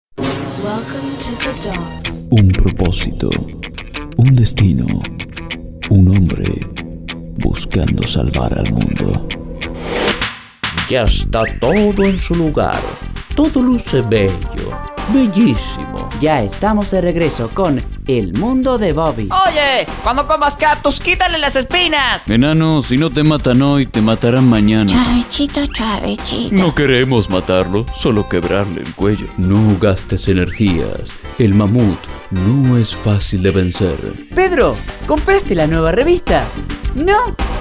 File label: acting, locutor